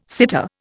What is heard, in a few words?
"sitter", Indian